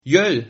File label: fire, Sylt Frisian